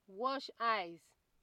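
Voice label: "wash eyes", Nigerian Pidgin, female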